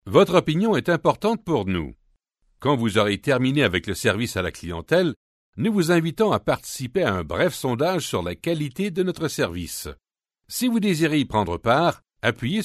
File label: french canadian ivr